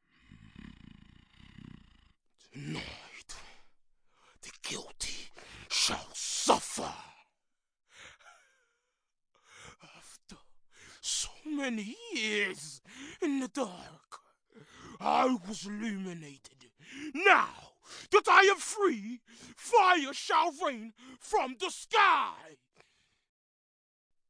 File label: Video Game Monster; Video Game Character Reel